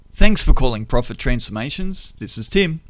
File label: Phone Greeting; Profit Transformations